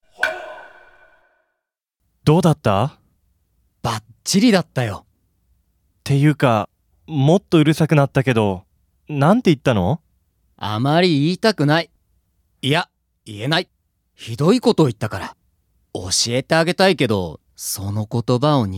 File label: dialog; JapanesePod101; Beginner Season 1